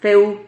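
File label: "feu", Spanish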